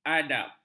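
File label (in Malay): "adap", Malay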